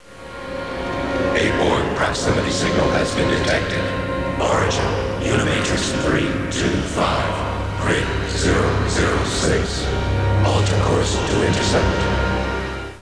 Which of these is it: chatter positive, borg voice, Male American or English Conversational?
borg voice